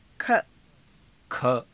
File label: "kha", hindi